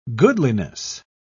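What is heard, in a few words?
"goodliness", British English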